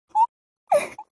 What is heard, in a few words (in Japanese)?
mob 女子 台詞